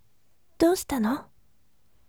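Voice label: "dousitano", gentle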